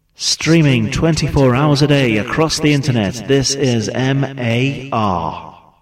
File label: Shoutcast, Voiceovers; MAR; Streaming